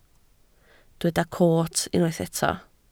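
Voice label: "coot" Welsh, spoken word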